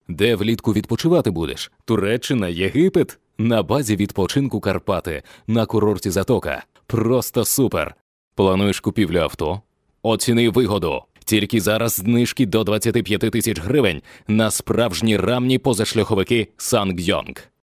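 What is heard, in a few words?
Ukrainian male voice over, upbeat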